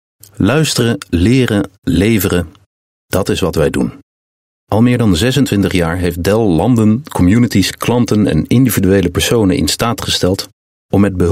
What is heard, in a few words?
Dutch Narration Clean VO, Dutch Male